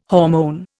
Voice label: "hormone", Indian